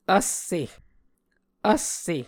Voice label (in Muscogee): "vsse", Muscogee